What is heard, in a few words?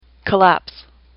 "collapse", English; AWL